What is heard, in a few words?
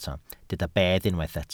"bedd", Welsh